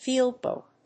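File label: "field book", English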